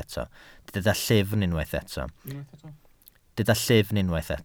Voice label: "llyfn", Welsh

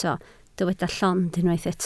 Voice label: "llond", Welsh

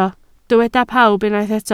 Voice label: "pawb", Welsh

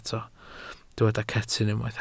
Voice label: "cetyn", Welsh

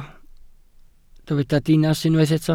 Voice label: "dinas", welsh

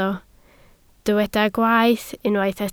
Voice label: "gwaeth", Welsh

